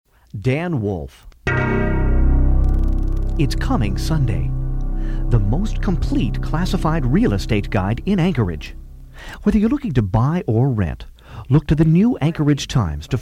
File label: Voice Over